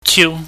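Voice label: "chiu", Cantonese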